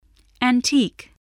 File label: "antique", spelling